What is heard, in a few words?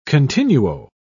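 "continuo", British English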